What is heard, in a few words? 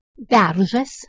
"berZas", Lithuanian